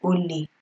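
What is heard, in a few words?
"ole", Nigerian Pidgin, female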